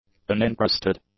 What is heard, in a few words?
"uninterested", English; adjectives